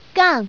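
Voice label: "gang", Chinese, male; 女; 欢乐麻将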